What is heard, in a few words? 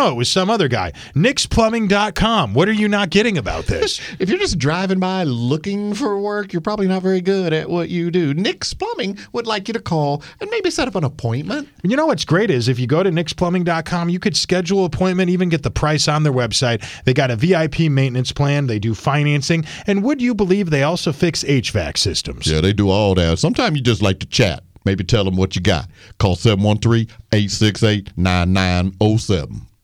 RADIO AD; nicks plumbing radio ads